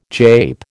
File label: "jape", American